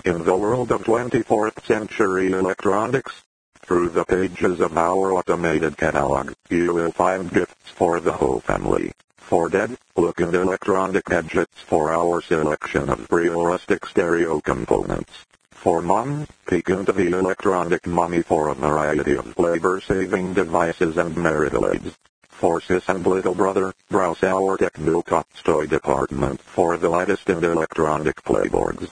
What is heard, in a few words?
Robotic Welcome to Radio Shock; Hz So Good Catalog